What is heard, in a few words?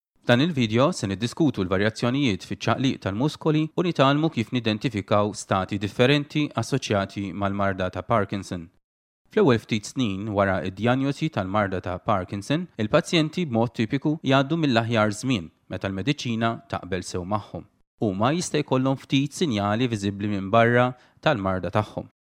Maltese